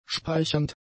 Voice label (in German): "speichernd", german; adjectives